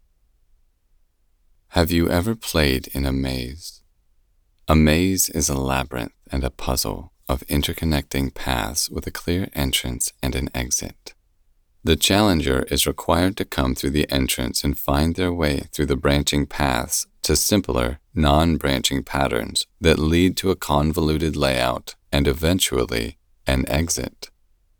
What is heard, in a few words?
Medical Drama Audiobook Excerpt